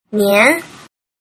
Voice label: "nian", Mandarin